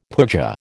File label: "pooja", American